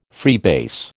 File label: "freebase", American